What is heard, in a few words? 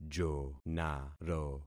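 "jonaro", concatenated syllables; Affixing experiment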